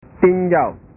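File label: "tinjau", Indonesian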